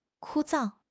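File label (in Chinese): "枯燥", Chinese; HSK6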